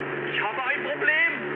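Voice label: German aircraft damaged mood high, speech; War Thunder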